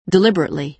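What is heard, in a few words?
"deliberately", American English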